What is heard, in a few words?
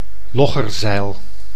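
"loggerzeil", Dutch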